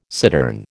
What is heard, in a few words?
"cittern", American